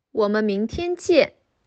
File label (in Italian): Cinese